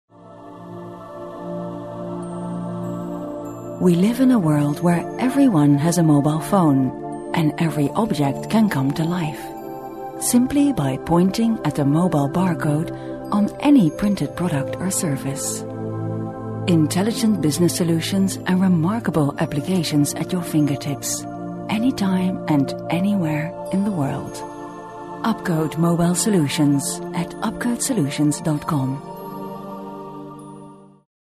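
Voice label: Dutch English, female, narration; voice over; accent of the netherlands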